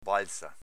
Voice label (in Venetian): "balza", Veneto